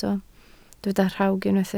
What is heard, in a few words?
"rhawg", Welsh